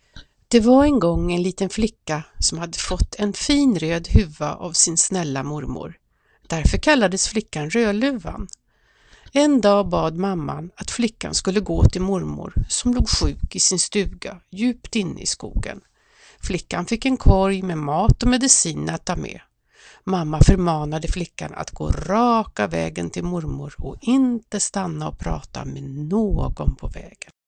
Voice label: svenska; sprakradet; Rodluvan

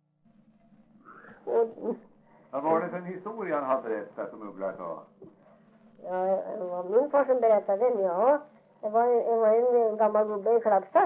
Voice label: dialekter; Folkminnen; Svenska sagor

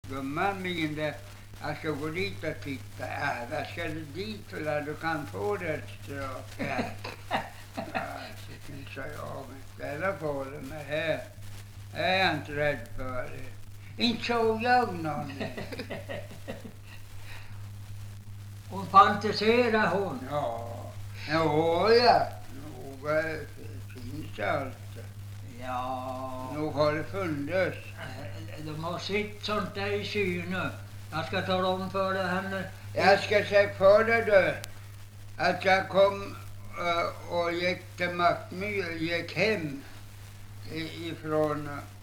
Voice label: dialekter; Folkminnen; Svenska sagor

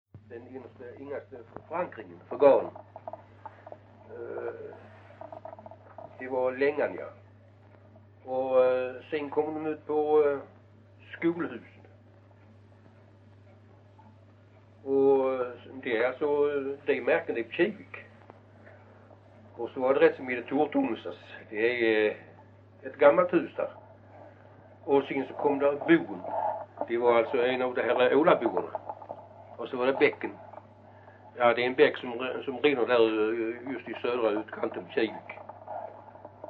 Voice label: Vitaby, dialekter; Folkminnen; Svenska sagor

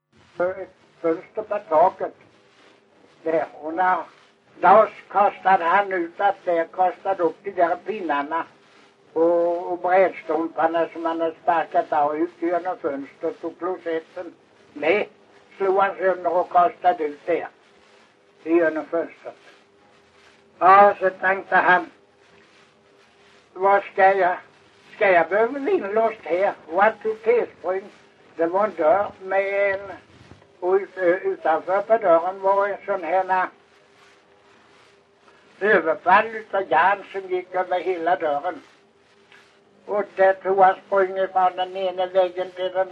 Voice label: Kristianopel, dialekter; Folkminnen

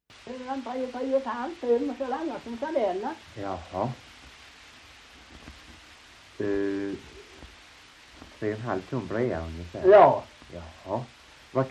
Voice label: Ramdala BLEK, dialekter; Folkminnen; Svenska sagor

